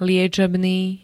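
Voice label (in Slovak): "liečebný", Slovak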